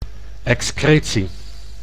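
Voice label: "excretie", Dutch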